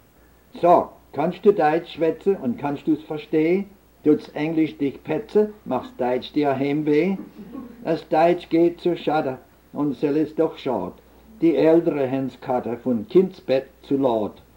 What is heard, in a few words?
Gedichde Schwetz Deitsch